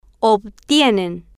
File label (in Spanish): "ob-tie-nen", Spanish